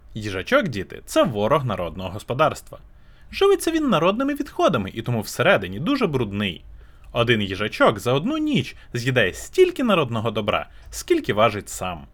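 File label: HyperX QuadCast 2, microphone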